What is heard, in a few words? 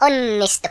brilliant, Finnish; Worms Armageddon